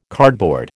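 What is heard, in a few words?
"cardboard", American English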